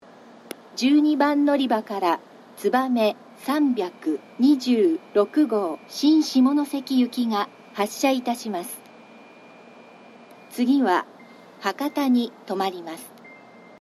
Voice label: 12番のりば発車放送; 12番のりば発車放送（つばめ326号 新下関行き）; 新鳥栖

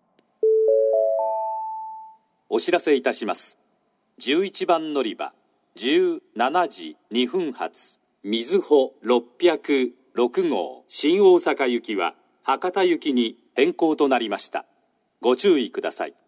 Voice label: 久留米駅 行先変更放送（みずほ614号新大阪行き→博多行きに変更）; 九州新幹線SIRIUS（シリウス）放送; 列車の行先が変更になった際に流れる放送。; 収録当日は、ダイヤ乱れでみずほが博多行きに変更の上、各駅に停車した。

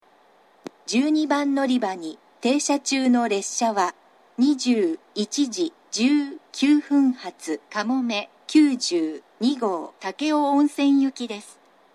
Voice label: 長崎 西九州新幹線ホーム 自動放送, 12番のりば停車中放送; かもめ92号 武雄温泉行き

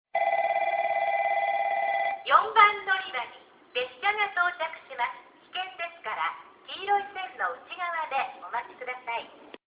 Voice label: 4番のりば接近放送 女声; 福工大前

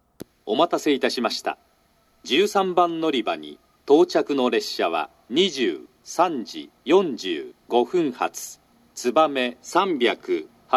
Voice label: 13番のりば接近放送, 新幹線ホーム; 新鳥栖; つばめ381号 筑後船小屋行き

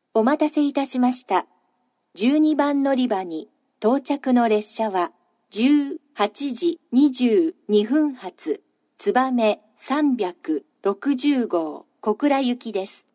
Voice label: のりば接近放送, 新幹線ホーム; 12番のりば接近放送（つばめ360号 小倉行き）

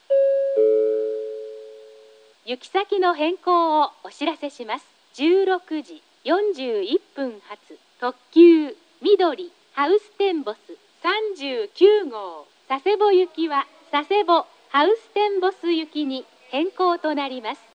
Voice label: 2番のりば行先変更放送; 佐世保・ハウステンボス行きに変更; takeo onsen; 臨時のハウステンボスを併結する際は、何故か行先変更放送が流れていました。

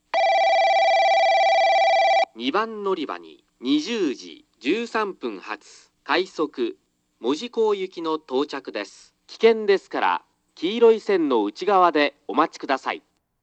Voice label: 2番のりば接近放送（快速 門司港行き）; 福間

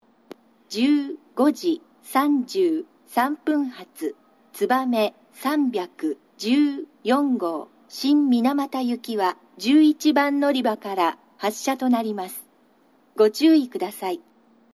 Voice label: 鹿児島中央駅 のりば変更放送（つばめ314号 新水俣行き）; 九州新幹線SIRIUS（シリウス）放送; 男声放送

